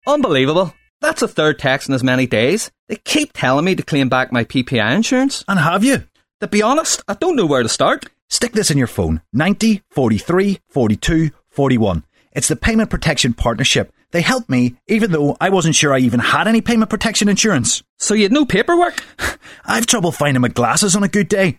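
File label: Irish English, Commercial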